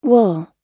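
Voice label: "wool", English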